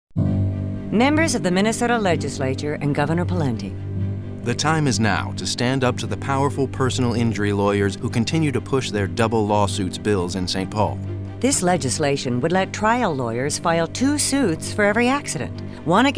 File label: radio